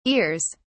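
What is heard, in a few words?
"ears", British English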